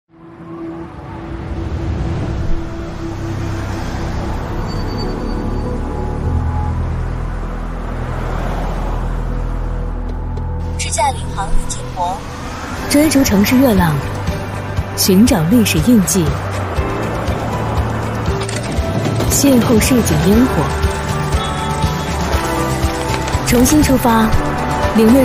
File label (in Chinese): 女-TVC-【汽车】; 华为汽车; 大气质感; 科技时尚